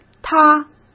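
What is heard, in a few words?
"他", Mandarin Chinese; chinese-flashcards; hsk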